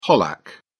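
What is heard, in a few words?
"pollack", British English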